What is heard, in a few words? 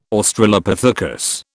"Australopithecus", British